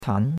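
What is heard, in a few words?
"tan2", Mandarin Chinese; Cours-de-Chinois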